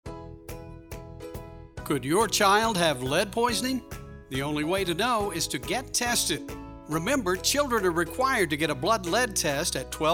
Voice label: PSA English; Get the Lead Out